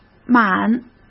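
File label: "满", Mandarin Chinese; chinese flashcards; hsk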